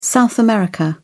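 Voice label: "South America", British English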